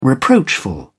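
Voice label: "reproachful", British English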